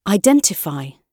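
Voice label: "identify", British English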